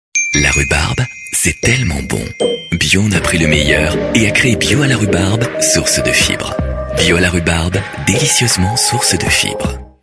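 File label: French male voice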